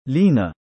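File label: female name "liina", Arabic; لِينَةُ; リーナ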